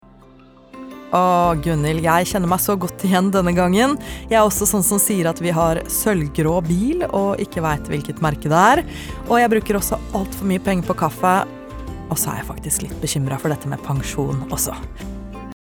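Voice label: Norwegian conversational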